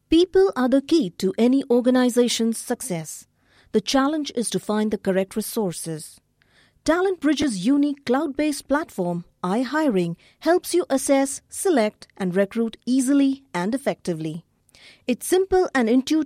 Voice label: English Indian F Corporate, accent of india

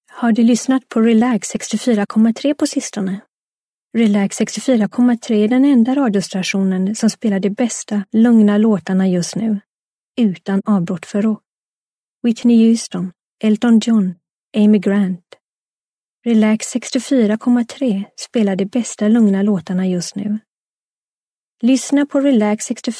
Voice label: Swedish F Narration

